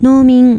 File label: "noumin", Japanese